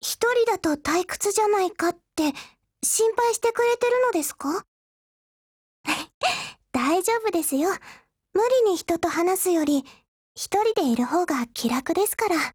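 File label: DIALOGUE, Japanese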